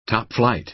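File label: "top-flight", American English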